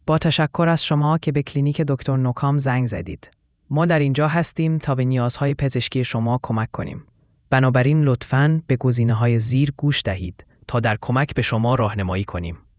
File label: question persian